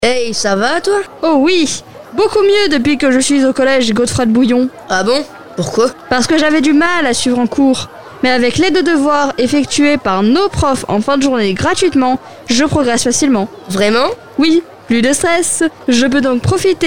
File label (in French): annonce, Transat Fm; college ensemble scolaire